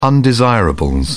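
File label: "undesirables", British English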